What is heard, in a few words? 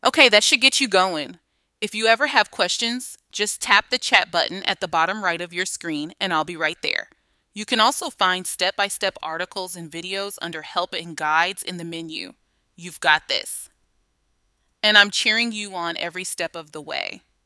tour narration outro